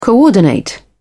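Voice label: "coordinate", British English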